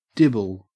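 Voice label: "dibble", Australian English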